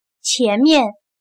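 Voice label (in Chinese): "前面" Mandarin Chinese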